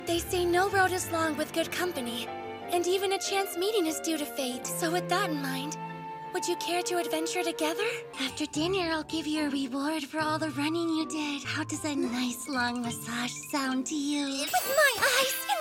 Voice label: Animation